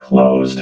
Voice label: "closed", robot vox

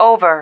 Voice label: "over", female

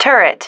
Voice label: "turret", female

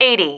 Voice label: "eighty", female

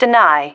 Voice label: "deny", female

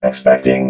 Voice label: "expecting", robot vox

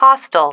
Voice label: "hostile", female

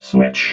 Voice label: "switch", robot

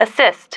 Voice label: "assist", female

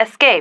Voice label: "escape", female

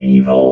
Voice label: "evil", robot vox

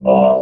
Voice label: "ball", robot

